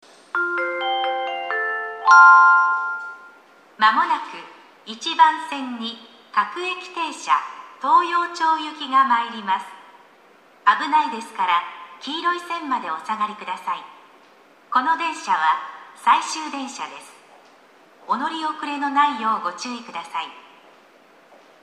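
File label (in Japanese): 駅放送, 1番線 終接近; toyo-katsutadai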